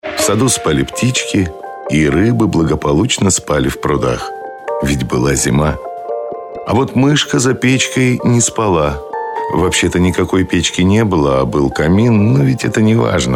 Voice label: RUS